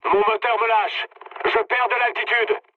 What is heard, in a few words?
damaged engine mood low, speech fr; War Thunder